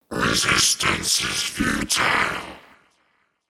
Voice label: "Resistance Is Futile" Spoken, The words 'Resistance Is Futile' spoken in alien voice.; Aliens